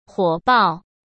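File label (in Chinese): "火暴" Mandarin Chinese; hsk; chinese-flashcards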